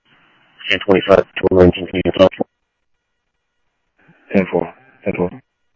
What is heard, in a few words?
Police, scanner audio trunk; H-Scottsdale